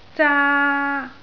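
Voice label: "zaa1", Cantonese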